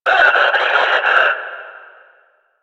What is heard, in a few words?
Death, Battle Barks; Warframe; Lasrian; Lasrian Enemy C